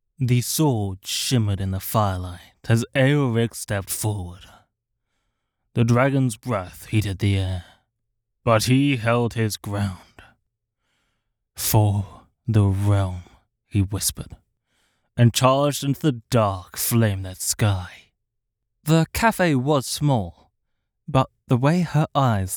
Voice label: Audiobook Sample